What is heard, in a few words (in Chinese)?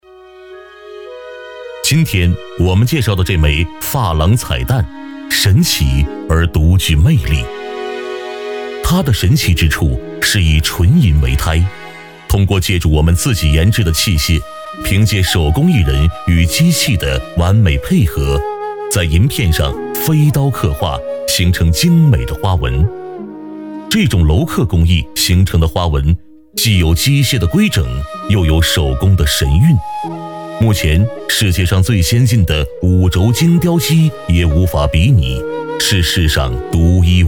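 男国大音-非遗-珐琅彩蛋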